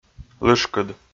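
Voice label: "лышкыд", Komi, male; kpv audio dict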